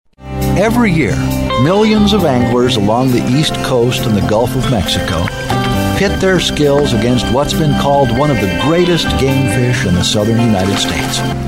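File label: male narration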